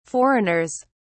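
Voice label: "foreigners", British English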